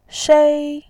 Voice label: "shei1", voice

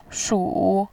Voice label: "shu3", voice

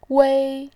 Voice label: "wei1", voice

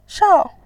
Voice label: "shao4", voice

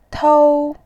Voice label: "tou1", voice

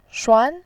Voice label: "shuan2", voice

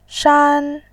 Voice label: "shan1", voice

